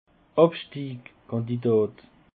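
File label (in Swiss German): "abstiegskandidat", Alsatian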